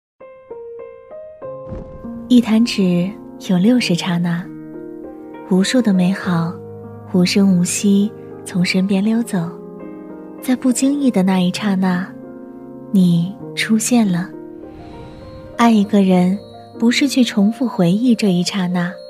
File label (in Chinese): 女-走心旁白-知性优雅; 遇见你真好